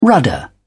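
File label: "rudder", English, British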